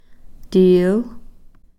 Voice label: "-tiil", Cow Creek; both